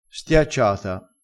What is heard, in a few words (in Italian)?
"stiacciata", crespinese